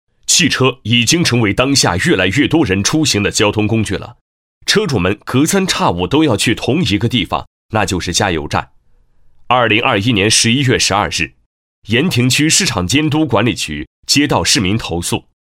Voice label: 男 警示专题片 讲解; 年轻激情; 大气浑厚

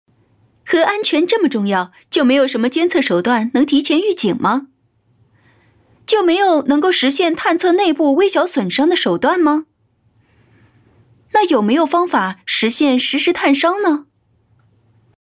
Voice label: 女 MG动画科普 安全预警解说; 轻松活泼

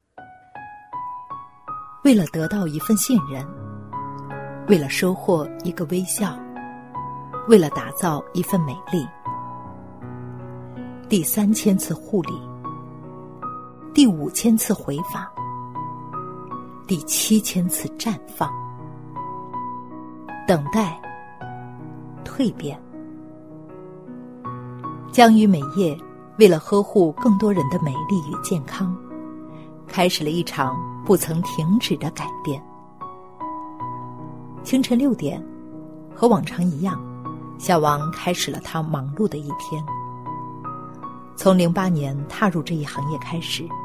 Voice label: 女 人物专题 自然讲述; 深情感人

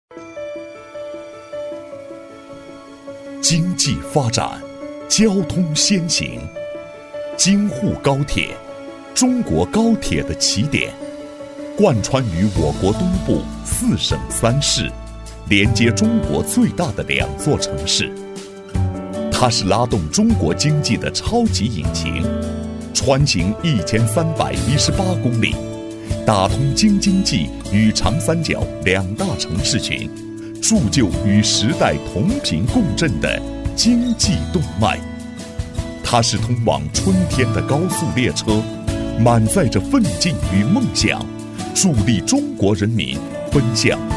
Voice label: 男 大国工程 高端正气; 京沪高铁; 大气激情 大气浑厚; 男138-大国工程《京沪高铁》-高端正气

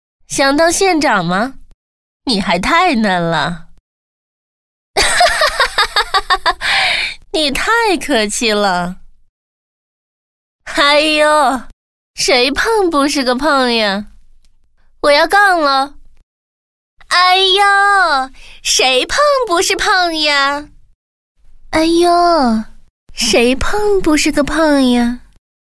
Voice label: 女 游戏语音; 幽默搞怪; 刘嘉玲

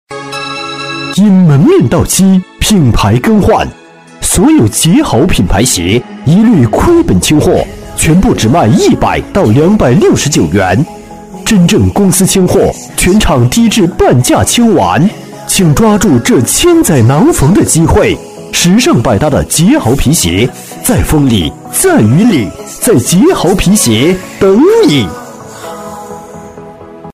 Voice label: 男 清仓广告 因门面到期 激情有力; 男2-浑厚大气 大气激昂

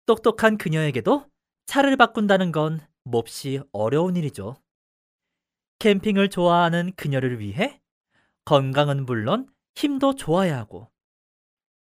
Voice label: 韩语男声 广告风格

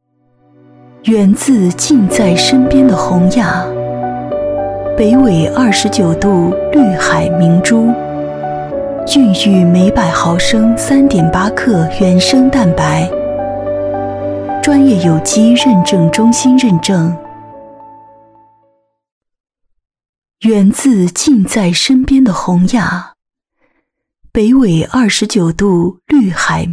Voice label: 女 广告配音 知性大气; 舒缓抒情; 洪雅牧场

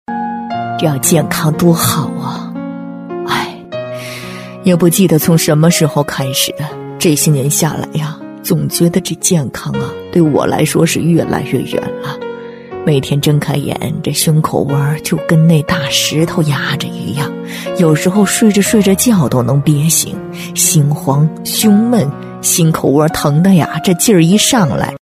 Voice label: 女 人物角色 老太太-深情, 伤感悲怆

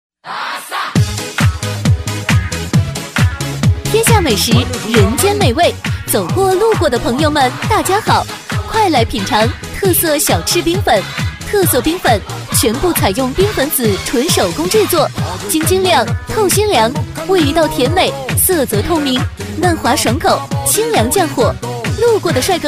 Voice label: 激情活泼女 特色冰粉炸鸡臭豆腐; 激情力度

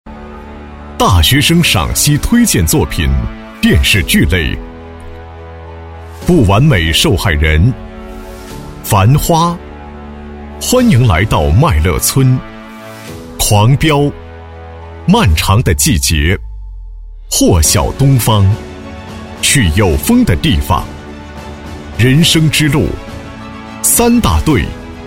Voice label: 男, 大气主流 成熟厚重; 中国大学生电影节闭幕

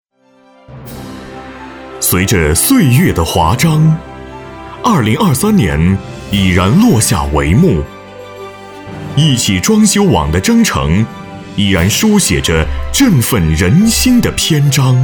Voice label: 男, 颁奖配音, 硬朗厚重; 大气主流; 大气浑厚; 2023年回顾

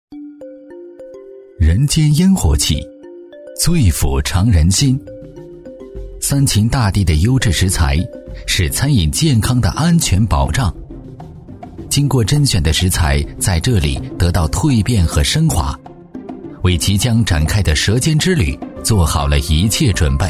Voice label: 男 纪录片 美食风格 讲述; 记录讲解 质感磁性

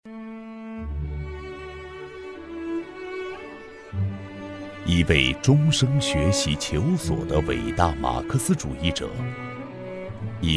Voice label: 男 人物纪录片 浑厚磁性; 大气激情 成熟厚重; 刘少奇

